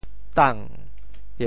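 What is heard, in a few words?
"tang2", Teochew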